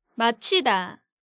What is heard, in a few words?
"Machida", Korean